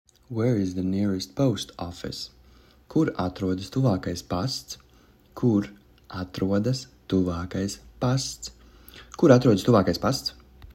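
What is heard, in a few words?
Where is the nearest post office, Latvian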